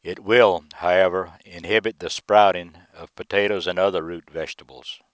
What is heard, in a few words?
male speech